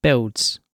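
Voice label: "builds", British English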